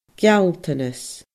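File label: "gealltanas", Scottish Gaelic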